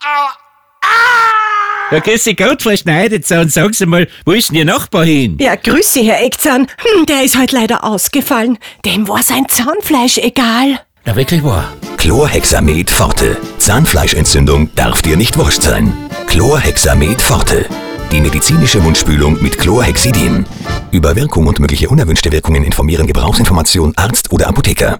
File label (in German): Radiospot; Chlorhexamed